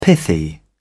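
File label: "pithy", British English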